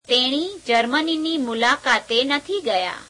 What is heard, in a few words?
gujarati, she didnt visit Germany; [negation + past tense]; તેણી જર્મનીની મુલાકાતે નથી ગયા; [teeṇeī jere'meneīneī meuleākeāte netheī gueyeā]